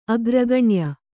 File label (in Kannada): "ಅಗ್ರಗಣ್ಯೆ", Kannada